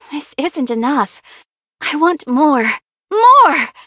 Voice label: levelup, en; cookierunwiki